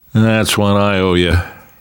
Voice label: thanks, namvet, survivor voice; Left 4 Dead 2; left4dead2 dlc3